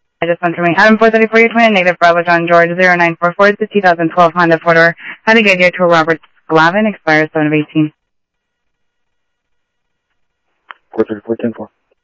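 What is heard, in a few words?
Sheriff East County, scanner audio trunk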